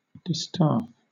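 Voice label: "distaff", British English; pronunciations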